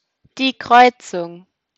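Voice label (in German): "Kreuzung", German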